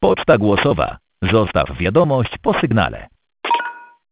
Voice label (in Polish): Poczta głosowa (Zostawianie wiadomości); Orange